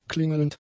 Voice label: "klingelnd", German; adjectives